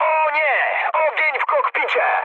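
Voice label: Polish on fire mood high; War Thunder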